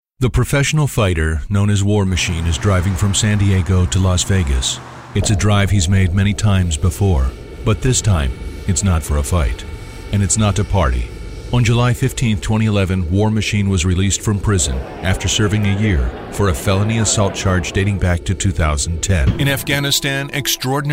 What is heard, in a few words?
Voice Talent Narration